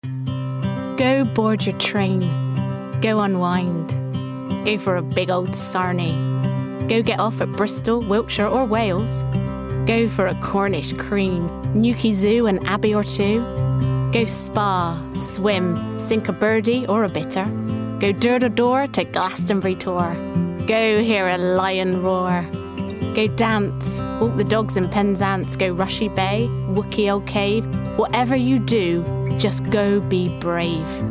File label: West Country